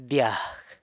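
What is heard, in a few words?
"dyag", San Lucas Quiaviní Zapotec; ear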